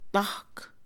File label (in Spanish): bastón, Mixe, Mujer; INALI